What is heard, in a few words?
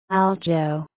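"alto", USA, female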